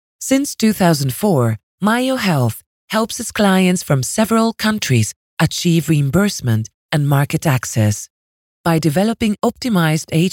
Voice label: European voiceover, e-learning

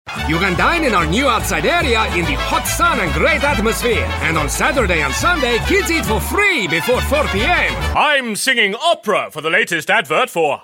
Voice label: radio ads character

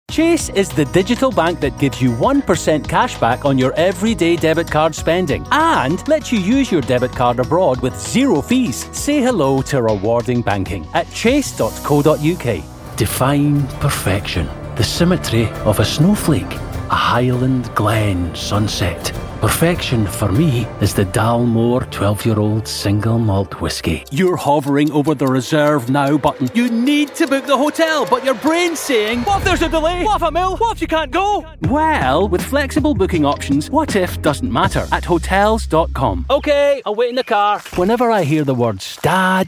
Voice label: commercial

